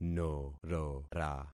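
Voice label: "norora", concatenated syllables; Affixing experiment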